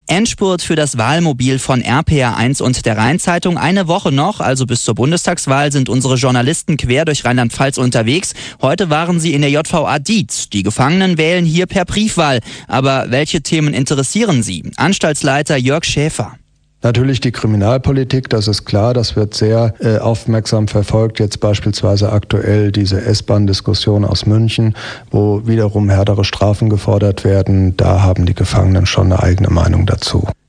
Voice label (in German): Regio-Nachrichten; Koblenz; Gefängniswahl